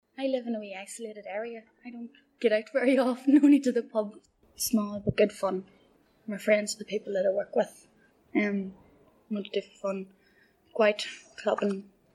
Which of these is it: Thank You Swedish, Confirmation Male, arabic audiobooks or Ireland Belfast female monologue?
Ireland Belfast female monologue